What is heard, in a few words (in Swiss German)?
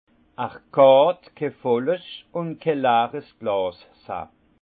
"vollsleers", alsace; le verre plein je te vide vide je te plains; vin